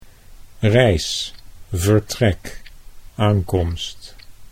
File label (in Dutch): "reis vertrek", Dutch